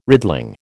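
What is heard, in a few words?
"riddling", American English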